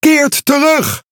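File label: NL Speech Pilots Fighter Land, Dutch; World Of Warships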